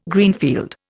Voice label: "Greenfield", Indian

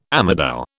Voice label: "amadou", British

